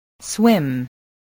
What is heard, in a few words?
"swim", voice spelling